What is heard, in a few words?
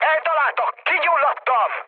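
on fire, mood med, Hungarian; War Thunder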